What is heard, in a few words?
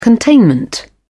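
"containment", British English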